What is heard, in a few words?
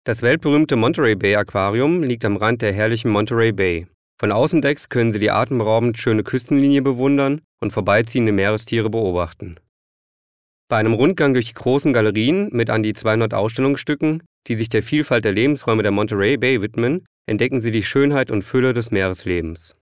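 german male narration; voice demos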